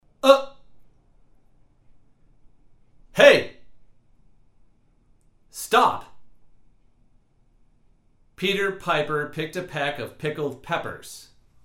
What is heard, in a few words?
Before omni 18 inches male